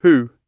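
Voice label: "who", British English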